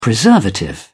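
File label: "preservative", British English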